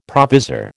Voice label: "provisor", American